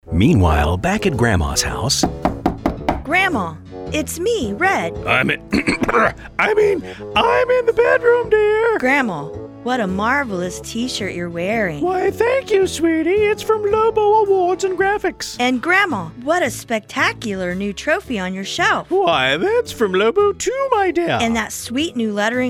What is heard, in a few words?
Radio Ad